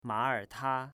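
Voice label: "Maerta", Chinese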